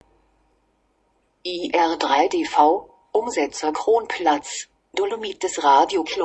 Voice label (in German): Kennung deutsch; Kronplatz